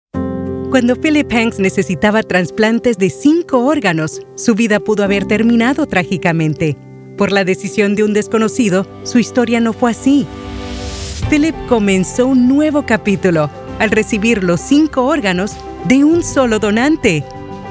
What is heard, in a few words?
radio spanish